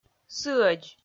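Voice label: "сыӧдз", Komi, female; audio dict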